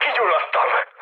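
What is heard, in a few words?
on fire, mood med, Hungarian; War Thunder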